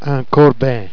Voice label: "corbin", Jèrriais